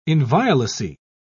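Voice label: "inviolacy", British English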